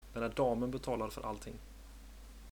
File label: pay, swedish